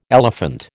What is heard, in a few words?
"elephant", American